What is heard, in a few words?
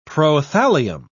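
"prothallium", British English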